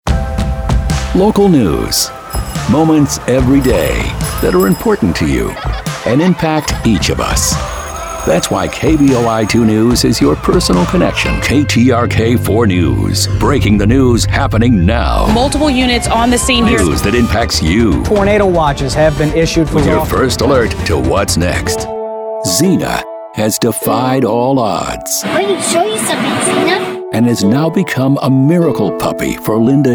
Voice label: tv affilliate male; tv affiliate

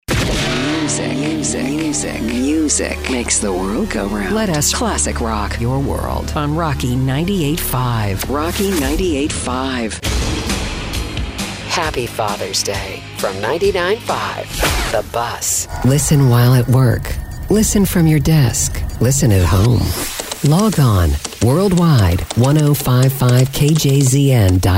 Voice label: radio women; radio imaging

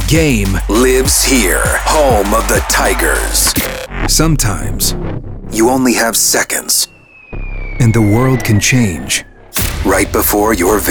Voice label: radio imaging men news talk

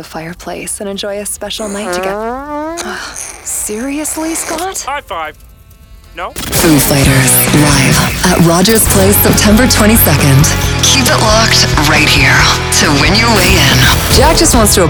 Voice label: radio imaging female rock

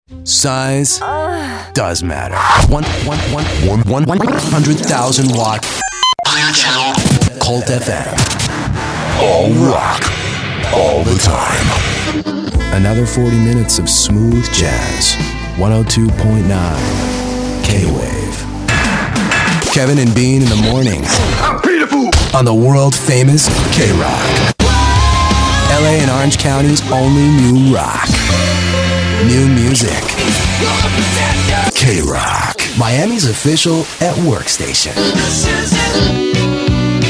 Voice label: radio imaging male

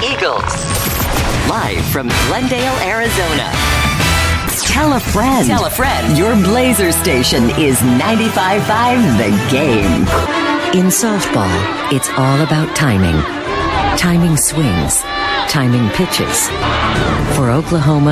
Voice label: radio women sports; radio imaging

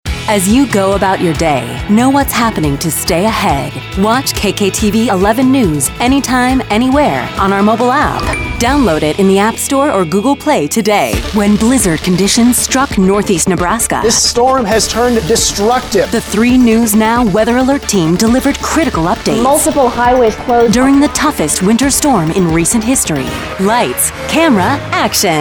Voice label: tv affiliate women

